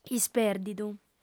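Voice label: "ispèrdidu", female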